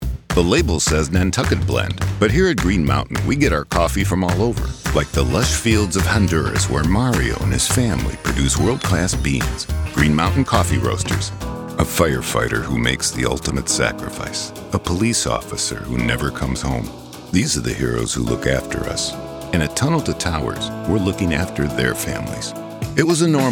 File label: commercial